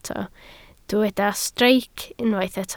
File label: "streic", Welsh